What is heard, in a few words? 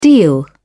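"deal", British English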